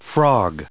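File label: "frog", English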